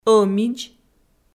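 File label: "ӧмидз", Komi, female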